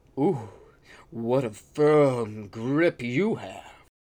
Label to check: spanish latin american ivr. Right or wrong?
Wrong